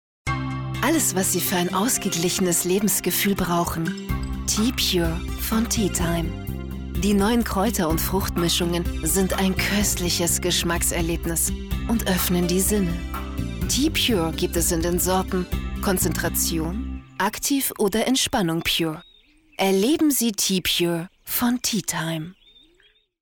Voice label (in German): Werbung Erwachsen Klassikprodukt; Tea Pure